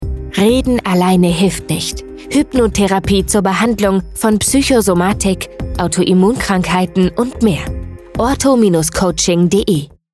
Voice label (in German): Funkspot; ORTHO-Coaching